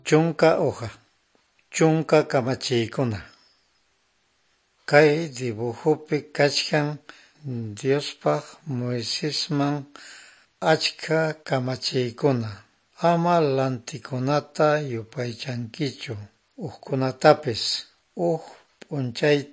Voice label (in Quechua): good news quechua norte de bolivia apolo